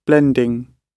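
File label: "blending", British English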